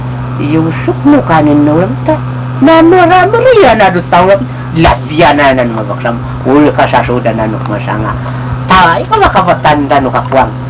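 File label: Yami language, male, Iranmilek; nimapo do kalilian a tao; The widow went back home to make taro cake for the alalabngan for saving her; 【寡婦拼命的趕做芋糕，以慰勞alalabngan的辛苦】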